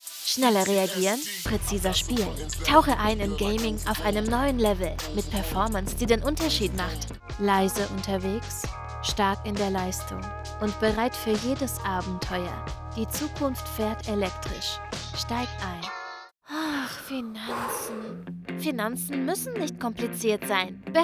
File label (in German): Werbung Voice Musik